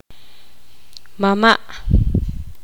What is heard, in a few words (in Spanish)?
poqomam abuelo materno